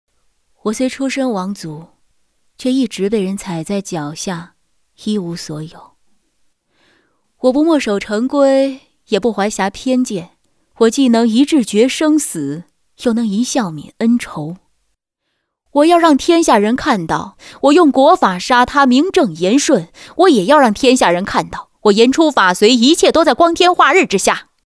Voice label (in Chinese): 模仿; 季冠霖甄嬛